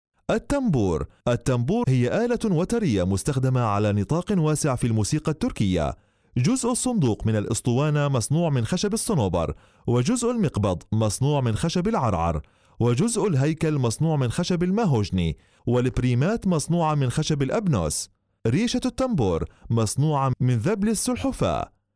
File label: arabic